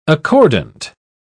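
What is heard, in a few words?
"accordant", British English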